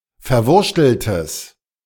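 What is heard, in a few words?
"verwurschteltes", German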